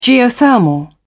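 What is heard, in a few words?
"geothermal", British English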